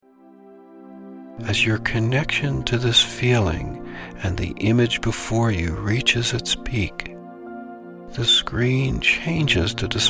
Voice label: Meditation